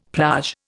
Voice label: "plage", Indian; Dictionary

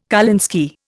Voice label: "kolinsky", Indian

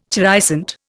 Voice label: "chrysanth", Indian

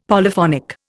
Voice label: "Polyphonic", Indian; Dictionary

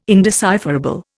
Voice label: "indecipherable", Indian

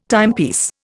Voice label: "timepiece", Indian

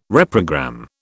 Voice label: "reprogram", British

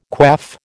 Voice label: "quaff", American

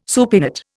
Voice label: "supinate", Indian

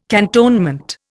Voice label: "cantonment", Indian

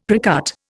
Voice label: "precut", Indian; Dictionary

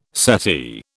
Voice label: "settee", British

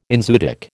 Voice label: "enzootic", American

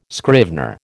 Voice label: "scrivener", American

